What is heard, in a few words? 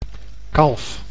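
"kalf", Dutch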